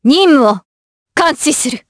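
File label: vox, voices, Japanese; Chrisha; kingsraid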